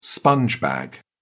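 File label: "sponge bag", British English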